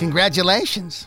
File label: "congratulations", cartoon; Voice